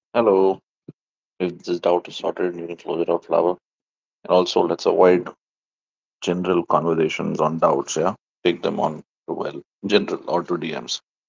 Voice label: voice message